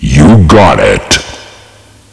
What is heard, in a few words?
grenade, vox kill man